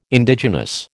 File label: "Indigenous", American